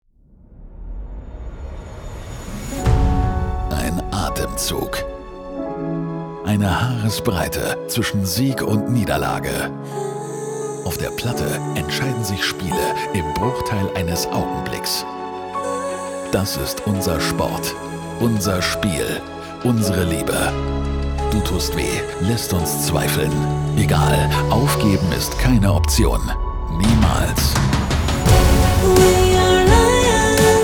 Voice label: Trailer Music Sprecher; Rhein-Neckar-Löwen